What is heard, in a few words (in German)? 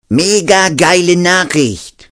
mega geile nachricht, ringtones